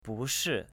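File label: "bushi", Chinese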